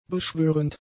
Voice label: "beschwörend", German; adjectives

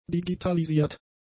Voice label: "digitalisiert", german; adjectives

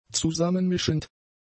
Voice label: "zusammenmischend", German; adjectives

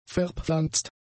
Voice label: "verpflanzt", german; adjectives

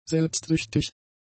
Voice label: "selbstsüchtig", German; adjectives

